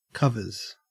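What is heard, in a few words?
"covers", Australian English; pronunciations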